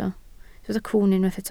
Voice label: "cwwn", Welsh

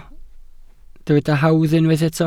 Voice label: "hawdd", Welsh

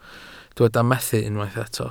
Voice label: "methu", Welsh

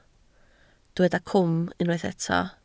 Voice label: "cwm", Welsh

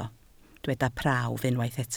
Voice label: "prawf", Welsh

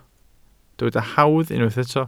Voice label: "hawdd", Welsh

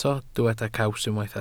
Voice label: "caws", welsh

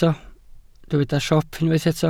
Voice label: "siop", Welsh

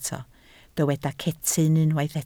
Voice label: "cetyn", Welsh

